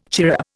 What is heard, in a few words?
"chirrup", Indian